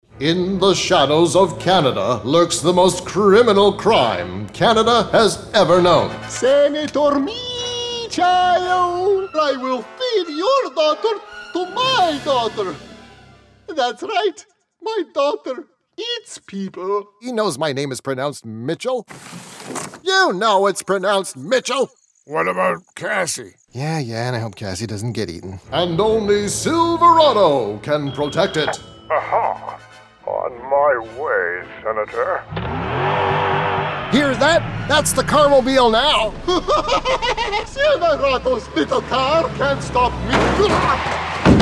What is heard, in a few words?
animation; TaDa